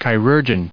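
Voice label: "chirurgeon", US English; The Ætherium Saga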